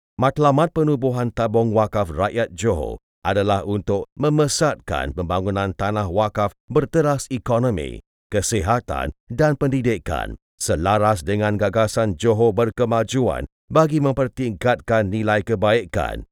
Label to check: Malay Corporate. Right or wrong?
Right